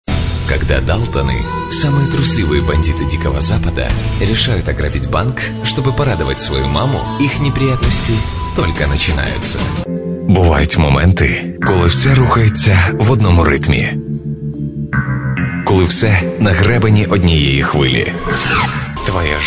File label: voice male